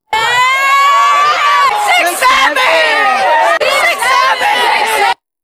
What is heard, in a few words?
six seven voice isolated